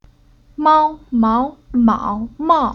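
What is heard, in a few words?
"Mao", Chinese syllable; Уроки фонетического блока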